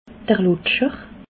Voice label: "lutscher", alsace

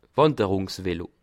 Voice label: "wanderung", alsace

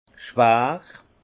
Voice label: "schwar", Alsace; vin; lourd

